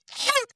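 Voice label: VoiceActing es, Male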